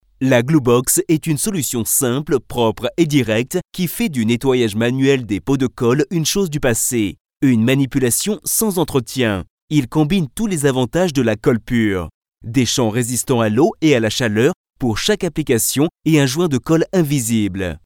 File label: french narration